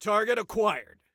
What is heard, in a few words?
Target Acquired, Soldier Male; Soldier Male 02